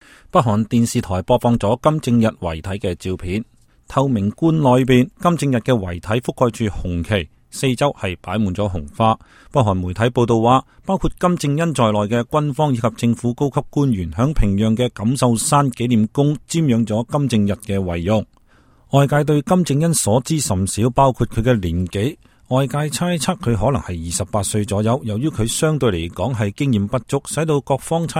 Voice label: cantonese; China Kim